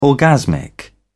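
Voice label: "orgasmic", British English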